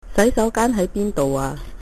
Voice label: toilet, cantonese